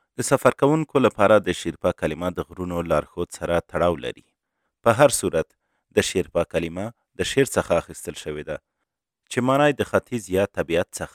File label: MALE PASHTO